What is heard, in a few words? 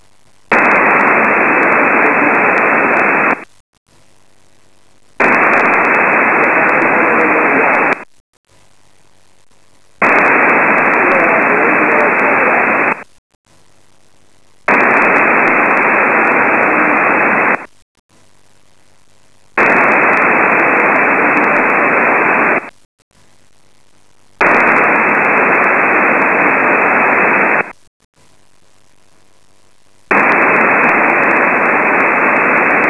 15m ssb